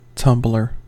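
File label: "tumbler", American English; pronunciations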